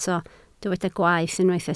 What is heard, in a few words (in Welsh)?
"gwaeth", Welsh